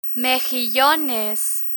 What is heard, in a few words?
"mejillones", Spanish; travel menu; learn spanish